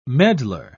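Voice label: "medlar", English, British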